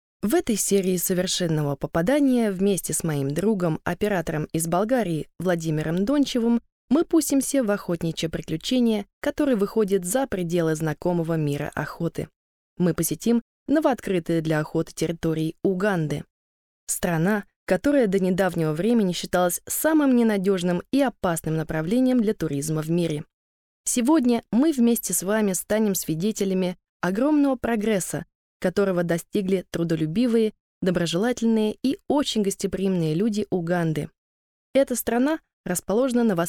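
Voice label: russian female narration; voice demos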